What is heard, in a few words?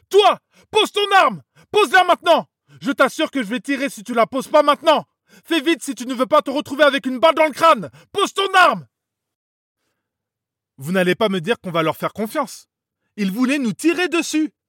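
Video Games, Dubbing Soldat, male voice actor; second war world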